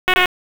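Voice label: Police Spec Ops Tac, radio; Raleigh; wake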